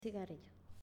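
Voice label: "Cigarillo", Spanish, female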